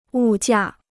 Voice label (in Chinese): "物价", Chinese